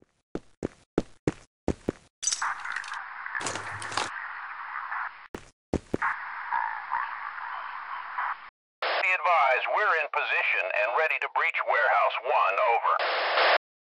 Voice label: grunts warn; fgrunt